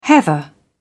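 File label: "heather", British English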